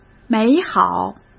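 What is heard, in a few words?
"美好", Mandarin Chinese; chinese flashcards; hsk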